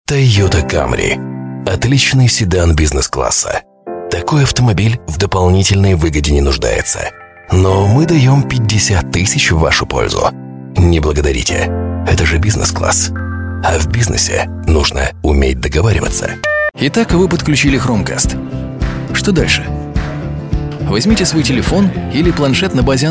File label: russian voice over male commercial